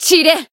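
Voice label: finish, Japanese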